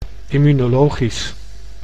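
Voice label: "immunologisch", Dutch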